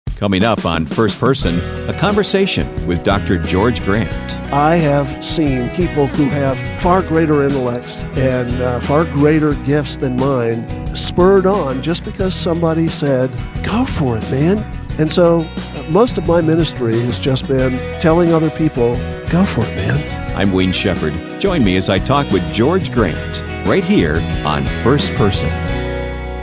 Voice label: promo spot

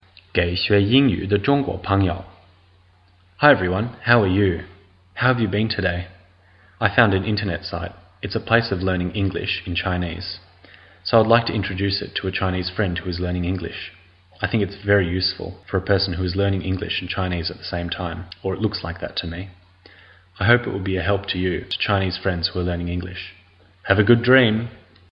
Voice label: english learning english in chinese thanks

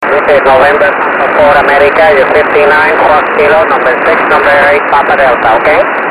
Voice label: 6m ssb

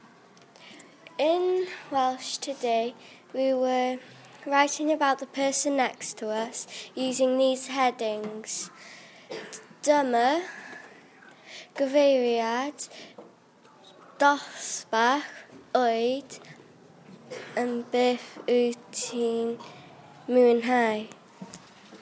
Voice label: speaks welsh

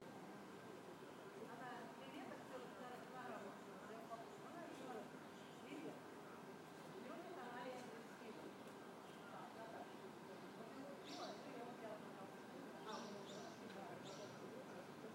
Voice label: old women talking birds chirping

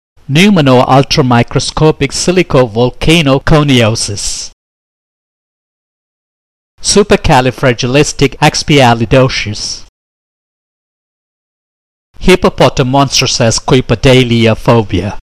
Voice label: three long words in english

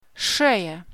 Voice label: "Neck" In Russian

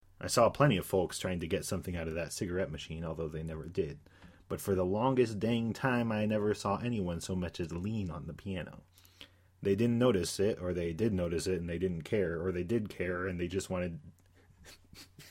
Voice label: working on an audiobook

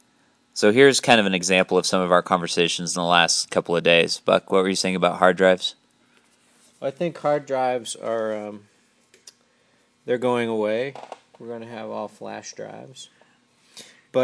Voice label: traffic update

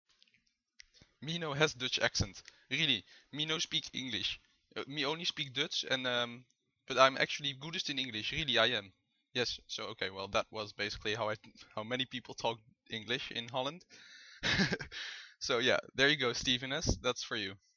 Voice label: typical dutch accent

